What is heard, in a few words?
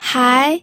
"hai2", pinyin audio